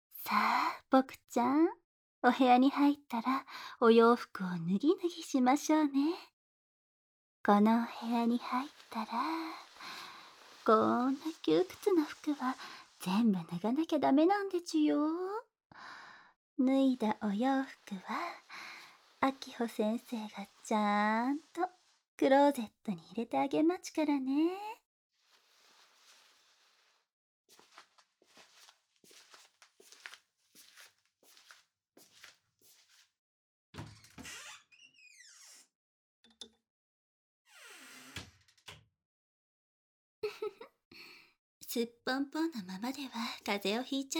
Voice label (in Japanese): ローションマッサージ, ASMR; SEあり; あきほ先生にローションマッサージで肉体を良いコ良いコされる